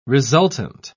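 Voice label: "resultant", English, British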